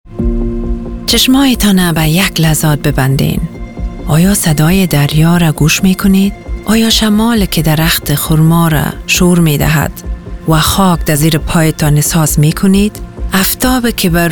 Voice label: Dari

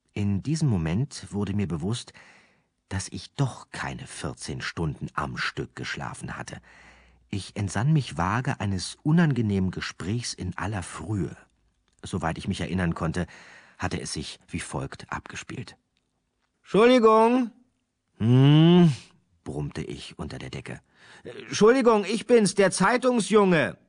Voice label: hoerbuch

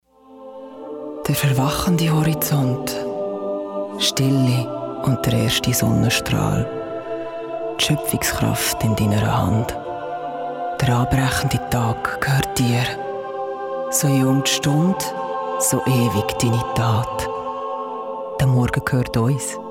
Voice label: Werbung; Hornbach; der erwachende Horizont

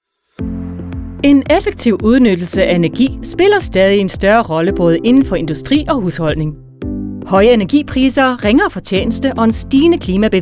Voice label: Testspeak Daenisch

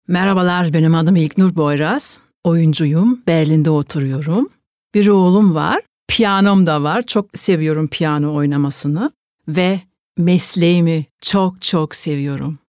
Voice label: Vorstellung tuerkisch

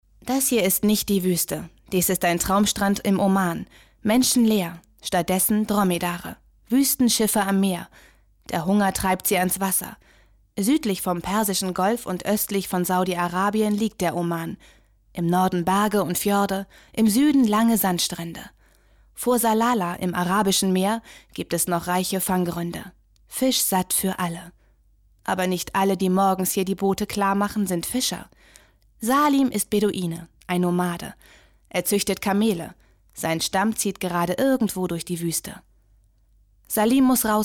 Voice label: kommentar

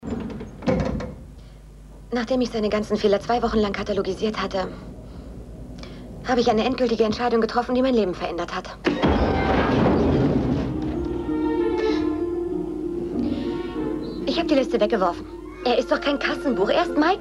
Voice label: Synchron

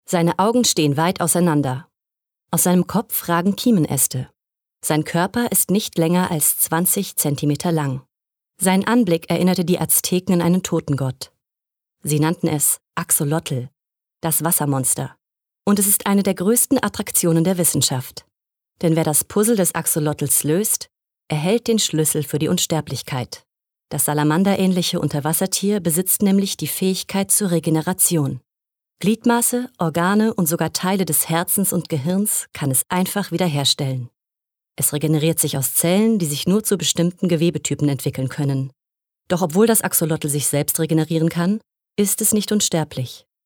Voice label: dokumentarischer Text

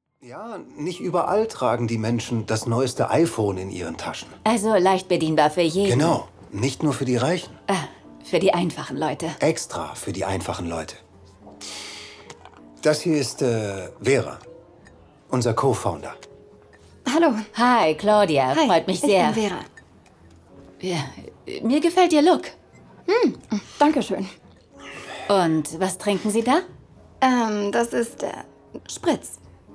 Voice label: HYPNOSE synchron

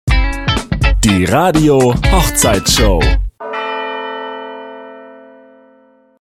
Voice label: Jingle Radio Hochzeits Show

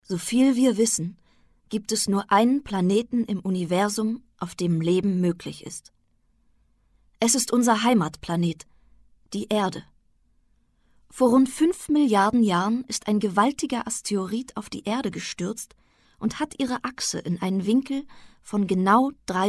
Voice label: Kommentar Doku

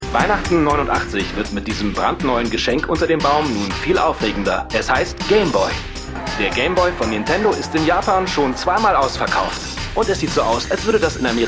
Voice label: Synchron Nachrichtensprecher; Tetris

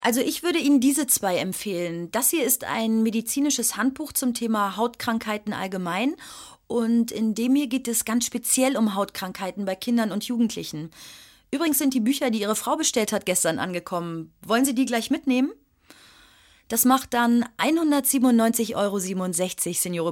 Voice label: synchron

